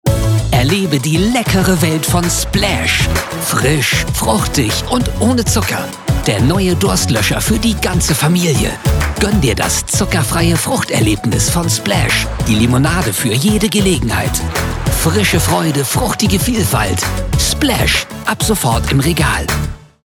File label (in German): Werbung Limo; Splash